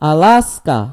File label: "alaska", id; gcompris-data; geography